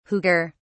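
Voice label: "huger", English, uk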